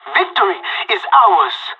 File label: radio bot end clean, pirate; Counter Strike Model Pack; CS:GO